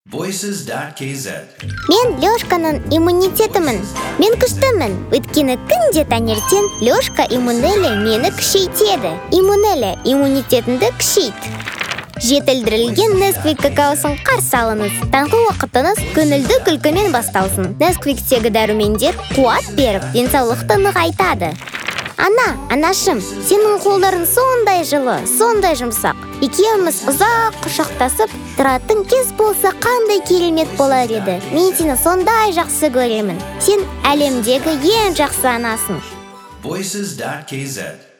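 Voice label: kid kazakh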